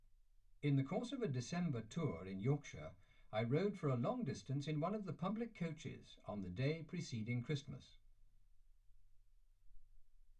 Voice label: male speech english